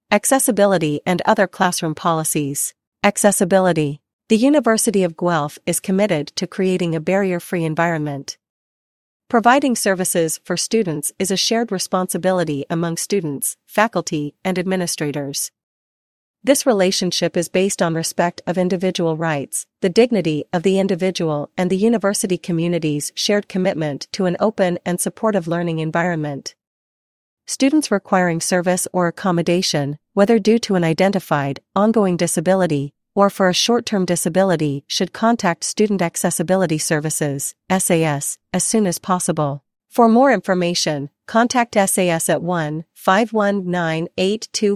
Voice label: voiceover